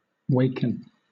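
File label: "waken", British English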